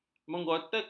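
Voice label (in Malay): "mengotakan", Malay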